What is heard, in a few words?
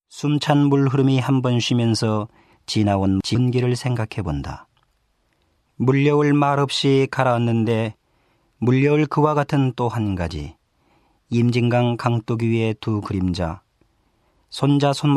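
Korean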